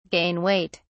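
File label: "gain weight", British English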